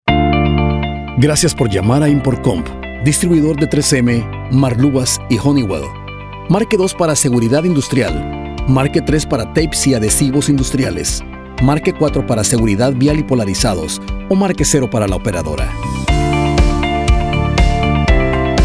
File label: IVR Welcome (Bienvenida)